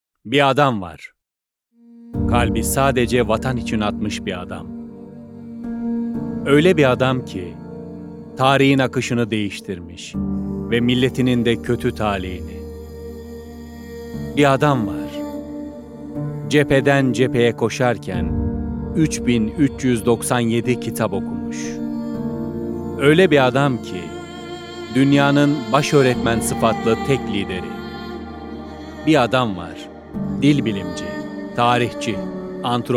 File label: turkish political ads